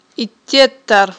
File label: "иттеттар", Aghul